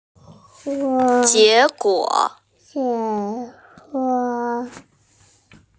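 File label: "jie2guo3", Mandarin Chinese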